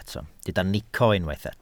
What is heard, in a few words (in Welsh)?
"nico", Welsh